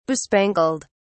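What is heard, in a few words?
"bespangled", British English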